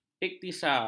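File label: "ikhtisar", Malay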